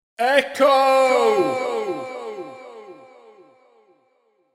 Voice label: The word ‘Echo’ shouted in male voice with repeat delay fade; Yelling